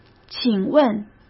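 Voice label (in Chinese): "请问", Mandarin Chinese; hsk; chinese-flashcards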